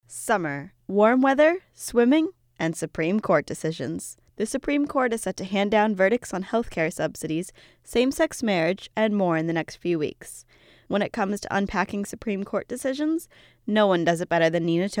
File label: promo morning edition